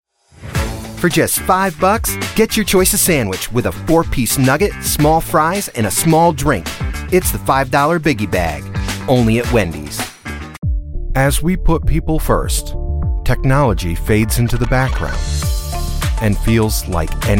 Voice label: commercial